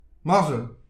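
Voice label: "morzhol", Breton; petit precis de prononciation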